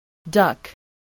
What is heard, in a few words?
"duck", English